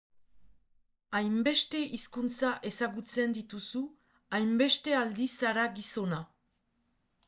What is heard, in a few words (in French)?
euskara traduction phrase